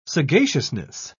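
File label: "sagaciousness", British English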